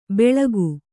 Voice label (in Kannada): "ಬೆಳಕು", Kannada